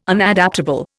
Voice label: "Unadaptable", Indian